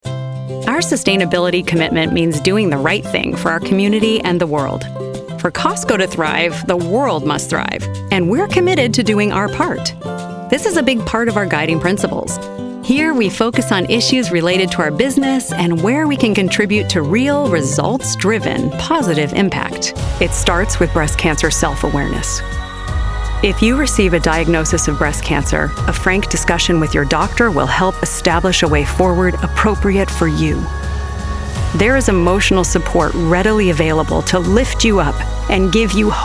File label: Narration